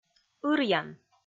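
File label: "ыръян", Komi, female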